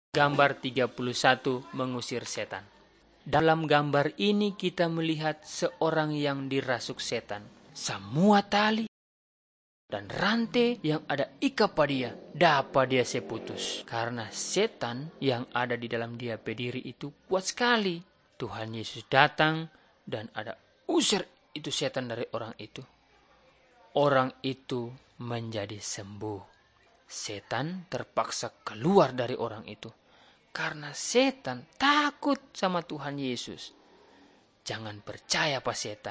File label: malay manado; good news